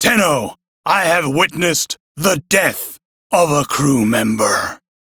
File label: Crew Died, Male; Warframe; Railjack Crew; Arbiters Hexis